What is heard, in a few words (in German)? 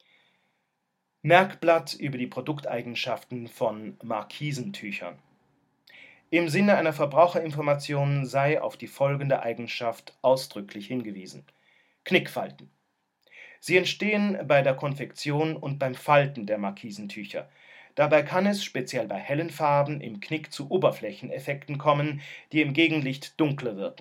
sprechprobe 3sprachig konventionell